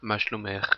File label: how are you in hebrew female